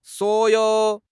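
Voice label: "suo3 you3", Mandarin Chinese; HSK4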